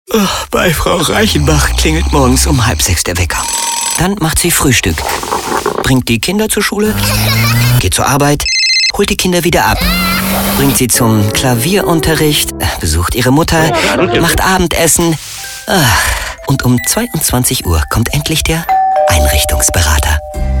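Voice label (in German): werbung möbel; schulenburg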